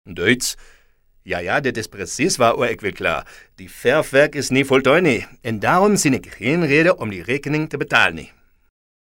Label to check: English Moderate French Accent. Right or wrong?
Wrong